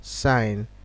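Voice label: "sign", Nigerian Pidgin, male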